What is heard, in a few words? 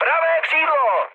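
right wing, Czech, mood high; War Thunder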